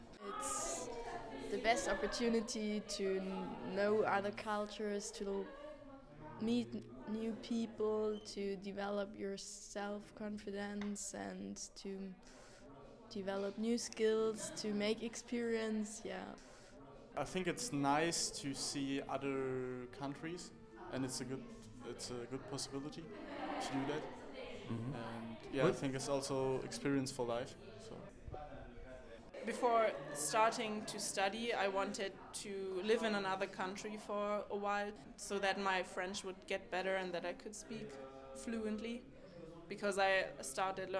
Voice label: Vox Pop; Erasmus